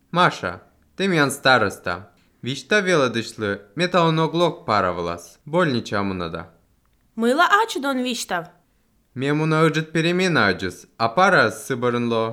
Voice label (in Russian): dialog